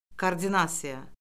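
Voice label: "koordinasiya", Azerbaijani; Orfoqrafiya Lüğəti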